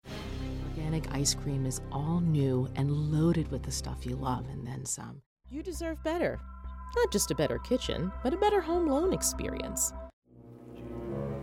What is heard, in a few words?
Commercial